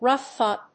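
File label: "rough up", English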